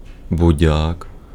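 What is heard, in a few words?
Ukrainian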